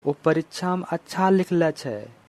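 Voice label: "Uoo pariksha main aachha likhalah cheh", Angika language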